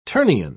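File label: "ternion", English, British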